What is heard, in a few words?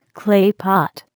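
"clay pot", English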